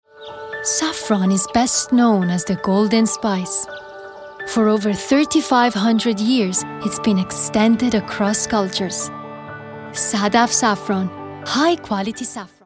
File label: English Iranian Accent